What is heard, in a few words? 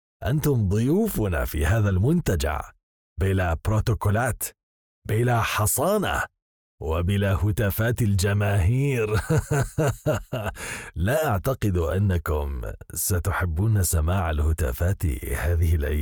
male arabic voice over character